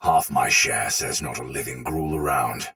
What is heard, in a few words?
patrol spoken soldier sword agent male; Sinecure